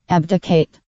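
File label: "abdicate" US English; pronunciation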